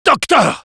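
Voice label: Spy medic, French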